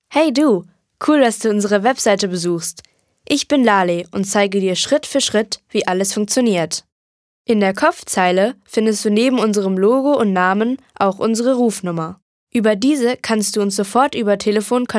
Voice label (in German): Webseitenintro